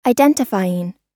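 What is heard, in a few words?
"identifying", US English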